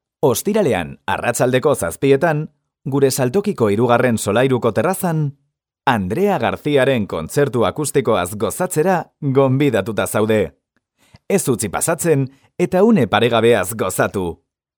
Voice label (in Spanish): Masculino; Sonoarte